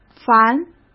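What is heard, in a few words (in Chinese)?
"凡", Mandarin Chinese; hsk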